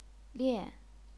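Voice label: "lian", Chinese, fourth tone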